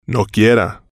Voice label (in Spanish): no quiera, Spanish